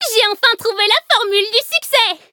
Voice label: victory, fr; cookie run